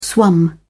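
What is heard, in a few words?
"swum", British English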